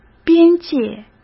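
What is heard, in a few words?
"边界", Mandarin Chinese; hsk; chinese-flashcards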